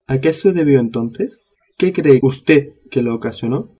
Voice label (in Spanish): "entonces" spanish